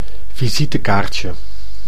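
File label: "visitekaartje", Dutch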